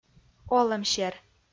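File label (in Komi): "олӧмсер", Komi, female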